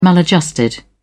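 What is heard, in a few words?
"maladjusted", British English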